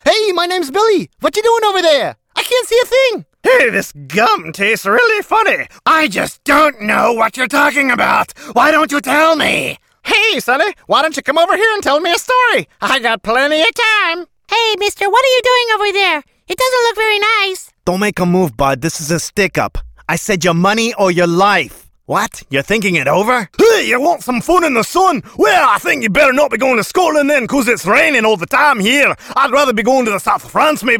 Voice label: Cartoon Silly Voices Improvised